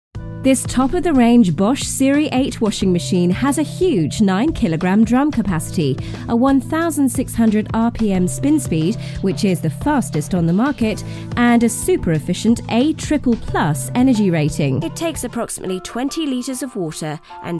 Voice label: Corporate and Industrial